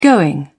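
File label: "going", British English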